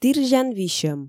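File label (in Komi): тірзян висьӧм, Komi, female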